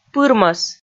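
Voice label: "пырмӧс", Komi, female; kpv audio dict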